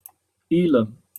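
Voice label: "ylem", British English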